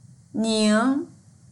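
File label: "ning2", Mandarin Chinese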